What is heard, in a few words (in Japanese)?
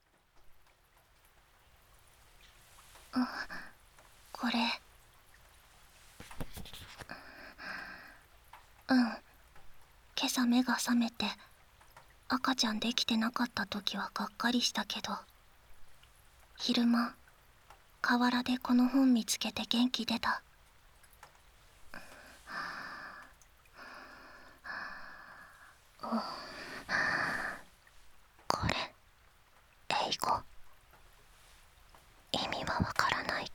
雨降りバージョン, ASMR; 田舎のダウナー幼馴染の子作りを手伝って…